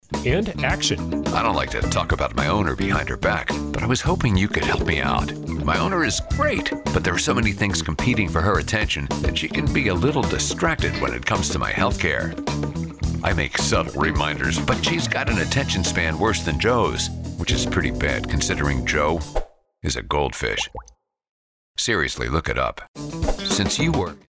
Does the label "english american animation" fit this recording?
Yes